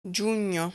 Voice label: "Giugno", Italian